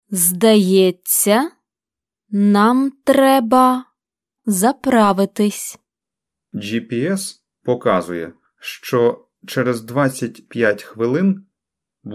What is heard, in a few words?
Dialogue Slow